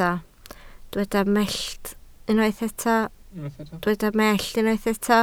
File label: "mellt", Welsh